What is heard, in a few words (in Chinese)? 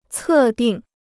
"测定", Chinese